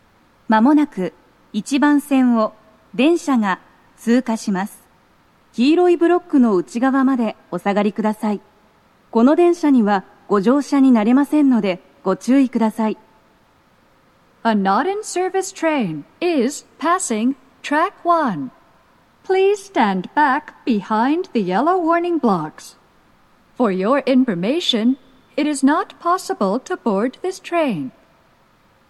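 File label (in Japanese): 接近放送, 通過電車, 女声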